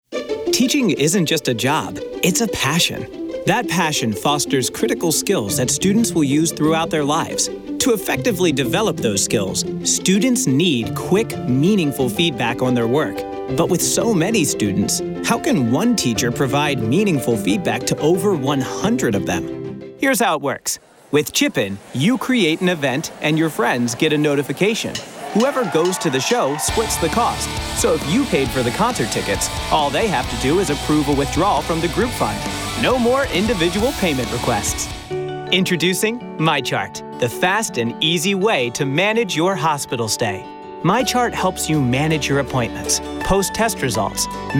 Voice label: explainer

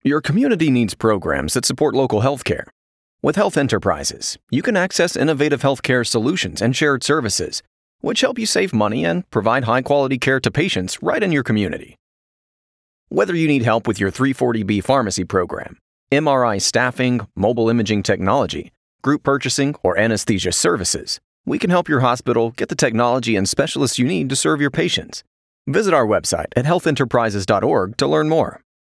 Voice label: Health Services Advertisement

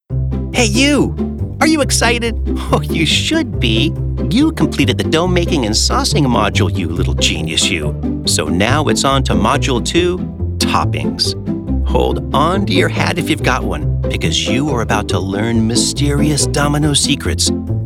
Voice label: E-Learning

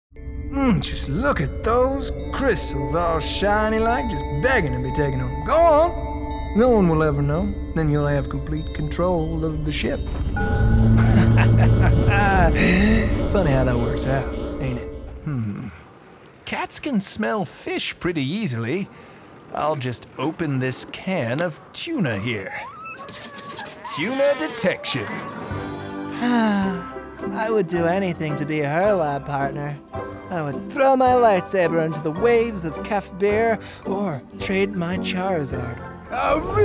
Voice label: Character

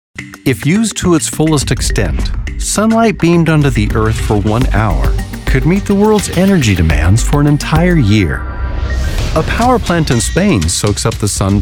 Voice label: Narration

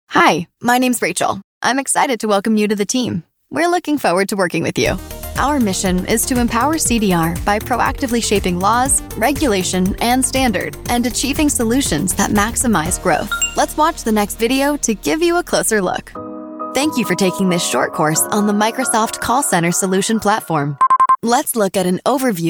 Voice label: eLearning

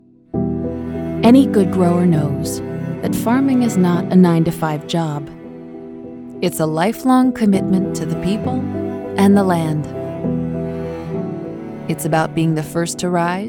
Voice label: Corporate Narration

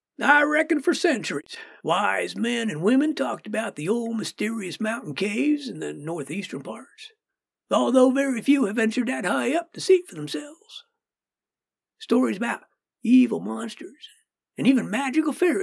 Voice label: Character Voice Old Man

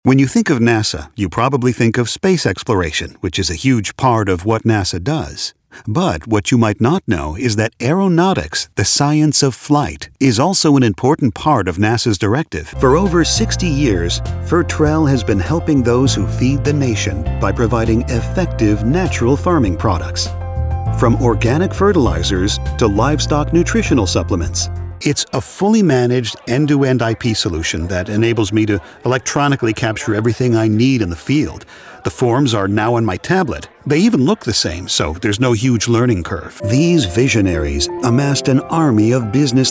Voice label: Corporate Image B2B

